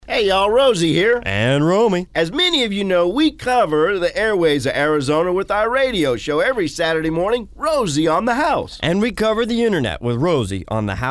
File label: commercial